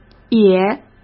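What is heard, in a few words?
"也", Mandarin Chinese; hsk; chinese-flashcards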